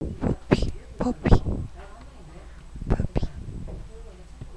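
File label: "puppy", spoken word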